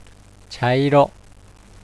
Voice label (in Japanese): "cha iro" Japanese; brown; ちゃいろ; 茶色; Let's Speak Foreign Language